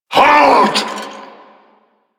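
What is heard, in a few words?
vocal investigating projected; Enemies; Vocals; Warframe; Duviri; Dax; Vocal Set B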